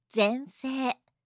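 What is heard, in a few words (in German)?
"zensei", japanische Mädchennamen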